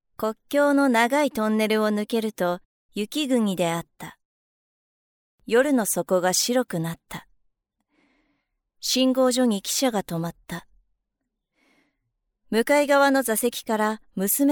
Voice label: female